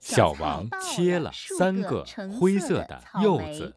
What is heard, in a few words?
Target Male Masker Female